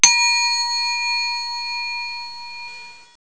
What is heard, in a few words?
chinese